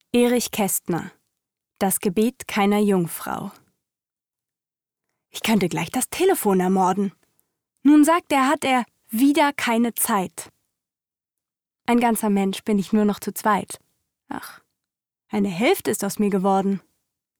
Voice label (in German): gedicht rolle